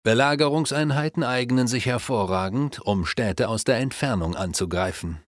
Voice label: Siege Units Knock Down Cities, German, Advisors, Speech; Civ5